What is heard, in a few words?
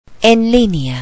"online", spanish computer